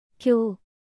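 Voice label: "교", Korean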